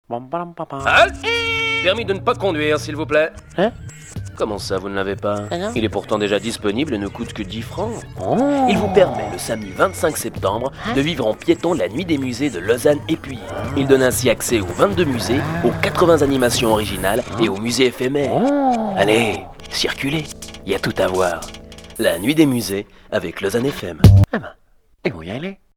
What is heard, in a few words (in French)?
spot RADIO